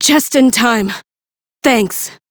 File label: Female, en; Crew Heal; Warframe; Railjack Crew; Cephalon Suda